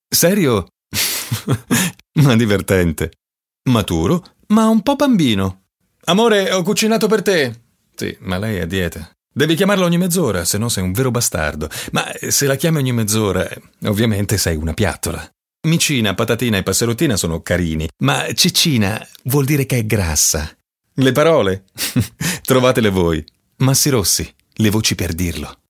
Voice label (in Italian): ITALIANO DINAMICA; FEMMINILE